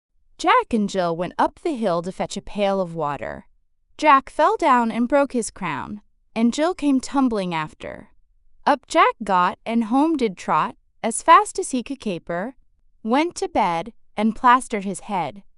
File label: fem us; Jack and Jill